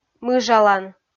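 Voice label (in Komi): "мыжалан", Komi, female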